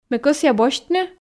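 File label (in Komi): ме кӧсъя босьтны, Komi, female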